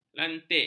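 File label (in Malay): "lantik", Malay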